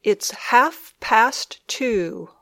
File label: "It's half past two", English